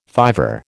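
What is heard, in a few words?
"fiver", American English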